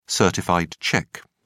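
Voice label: "certified cheque", British English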